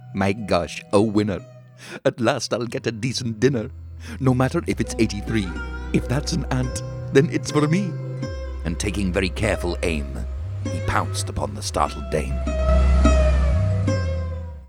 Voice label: Cartoon - The Anteater